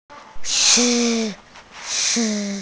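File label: "chi1", Mandarin Chinese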